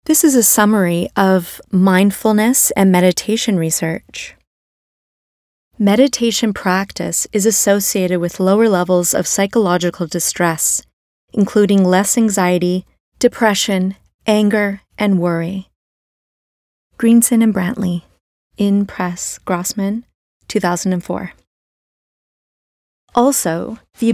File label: Voice Over